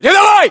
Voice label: rank private, ru